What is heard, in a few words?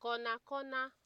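"corner corner", Nigerian Pidgin, female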